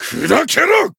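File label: attack, Japanese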